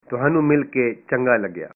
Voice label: punjabi nice to meet you

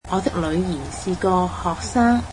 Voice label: cantonese her daughter is a student

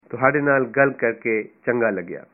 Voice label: It was nice talking to you, punjabi

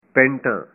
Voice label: trousers, punjabi

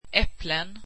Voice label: swedish apples

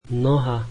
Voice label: leg, slovak

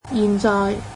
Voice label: "now", Cantonese

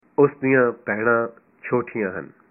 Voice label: his sisters are young, punjabi

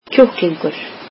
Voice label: icelandic chicken

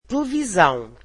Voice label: portuguese television

